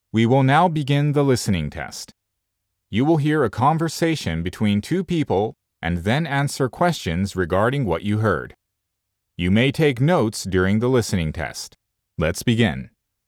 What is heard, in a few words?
foreigner